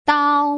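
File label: "dao", Mandarin Chinese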